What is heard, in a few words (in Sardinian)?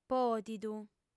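"pòdidu", female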